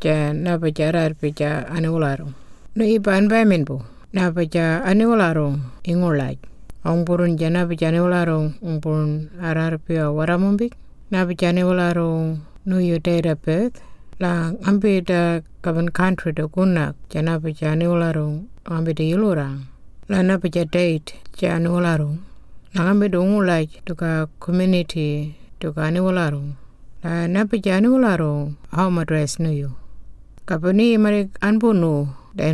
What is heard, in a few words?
Burial Notification Maung; The Deceased; burial and cremation; information recorded in 18 aboriginal languages; Burial and Cremation Act 2022